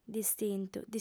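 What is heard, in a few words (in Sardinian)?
"distintu", female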